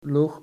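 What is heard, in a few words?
"loog", Dutch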